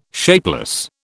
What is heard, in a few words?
"shapeless", British